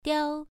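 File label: "diao" Mandarin, first tone, pronunciation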